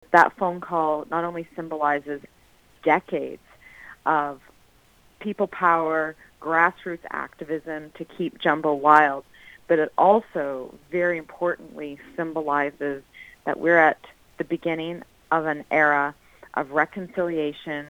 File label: phone call; Ktunaxa